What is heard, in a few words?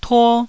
"tuo1", taiwanese Syllables